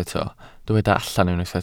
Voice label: "allan", Welsh